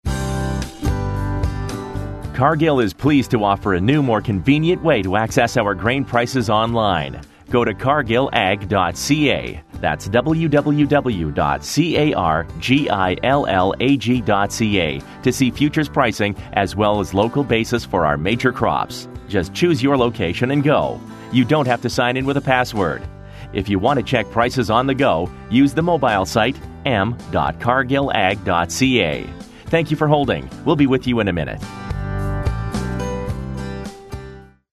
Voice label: OnHold